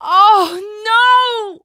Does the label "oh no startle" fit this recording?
Yes